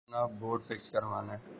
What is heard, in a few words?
Voice Note